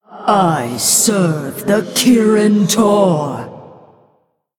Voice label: Female Elemental Play

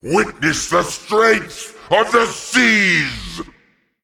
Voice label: Male Titan Trigger

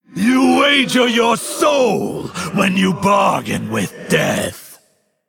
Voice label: Male Undead Play

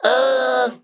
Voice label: Male Mech Emote Thinking

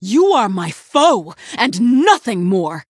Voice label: Female Human Threaten

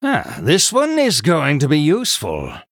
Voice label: Male Human Recruit Medium Minion

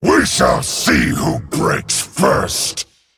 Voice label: Male Dragon GREETINGS

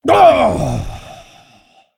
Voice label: Male Dragon Death

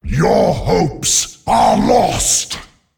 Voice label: Male Dreadlord Attack

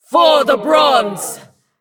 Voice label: Female Elf Dragon Attack

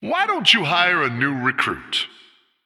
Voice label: Male Human Hire

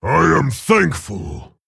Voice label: Male Orc Thanks

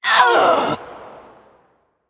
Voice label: Female Old God Death

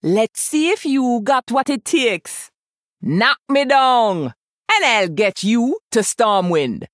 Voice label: Female Troll; Hooktusk

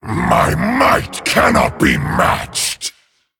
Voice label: Male Dragon Picked

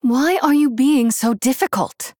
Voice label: Human Female Threaten; Jaina

